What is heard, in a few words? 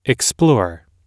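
"explore", English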